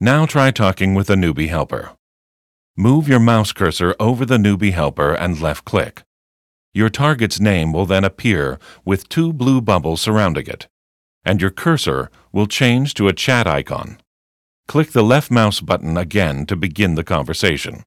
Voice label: tutorial voice; lineage2